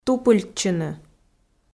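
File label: "тупыльтчыны", Komi, female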